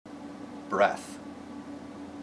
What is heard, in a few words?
"breathe", IPA